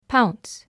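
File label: "pounce", English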